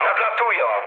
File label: enemy detected mood low, speech pol; War Thunder